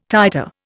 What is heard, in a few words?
"titer", Indian